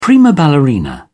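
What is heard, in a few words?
"prima ballerina", British English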